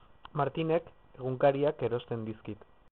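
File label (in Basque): Martinek egunkariak erosten dizkit, Basque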